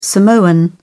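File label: "samoan", British English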